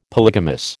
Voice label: "polygamous", American

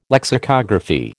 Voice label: "lexicography", American

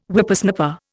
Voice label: "Whippersnapper", Indian

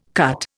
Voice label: "cut", Indian

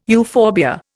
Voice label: "euphorbia", Indian

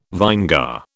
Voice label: "vinegar", British

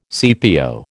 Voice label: "CPO", American

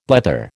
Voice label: "blether", American

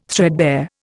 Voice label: "threadbare", Indian

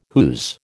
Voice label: "whose", American

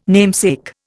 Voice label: "namesake", Indian

